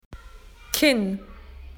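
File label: "chin", Australian English; PictureDictionary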